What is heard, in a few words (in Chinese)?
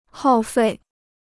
"耗费", Chinese